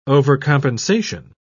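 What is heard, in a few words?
"overcompensation", British English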